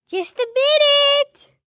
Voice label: Just A Minute, 1 boy, 7 yrs; Talking Sound Effects